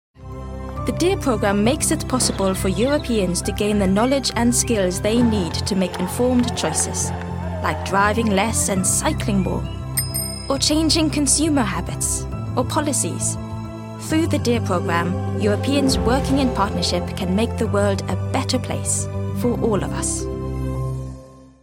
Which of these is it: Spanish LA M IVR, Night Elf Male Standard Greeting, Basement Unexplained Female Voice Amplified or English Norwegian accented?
English Norwegian accented